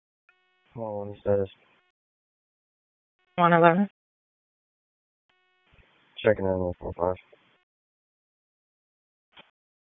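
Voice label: Police Dispatch; Raleigh Police Southwest District Disp; wake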